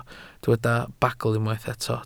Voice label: "bagl", Welsh

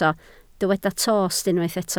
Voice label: "tost", Welsh

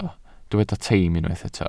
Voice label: "teim" Welsh

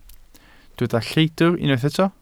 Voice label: "lleidr" Welsh

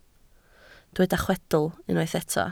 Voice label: "chwedl" Welsh

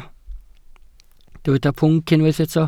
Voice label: "pwnc", Welsh

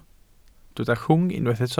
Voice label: "rhwng", Welsh

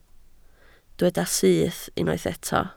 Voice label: "syth", Welsh

